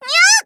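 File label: story, Japanese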